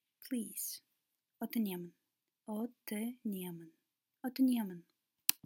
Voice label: please, kazakh

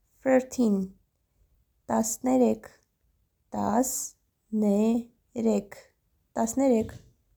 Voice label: "13 tasnyerek", Armenian

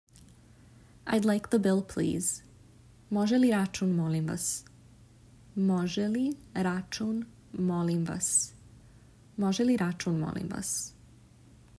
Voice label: "I'd like the bill please", Serbian

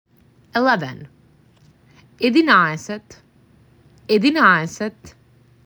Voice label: MAC 6, macedonian phrasebook

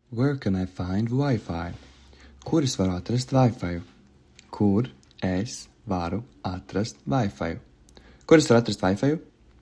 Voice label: Where can I find Wi Fi, Latvian; the talking latvian phrasebook

